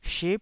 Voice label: "ship9", taiwanese Syllables